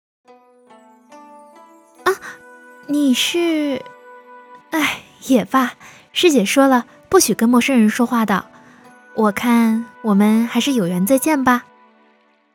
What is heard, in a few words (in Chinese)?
广播剧有声书古风少女